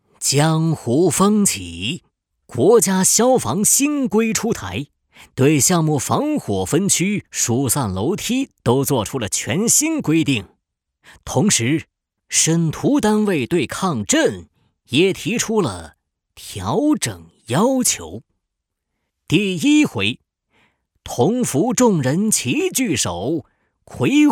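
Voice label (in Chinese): 武侠说书旁白; 武林外传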